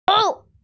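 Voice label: "zou3", Mandarin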